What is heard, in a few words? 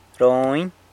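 "Rony", San Lucas Quiaviní Zapotec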